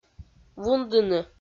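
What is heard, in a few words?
"вундыны", Komi, female; audio dict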